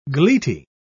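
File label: "gleety", British English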